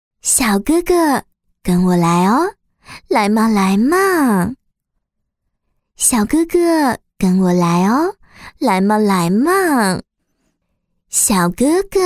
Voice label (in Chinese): 动画 游戏 小哥哥